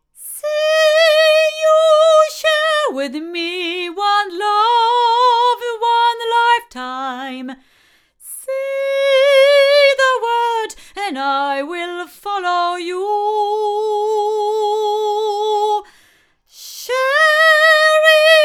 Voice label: Sontronics Helios 8 female, microphones recordings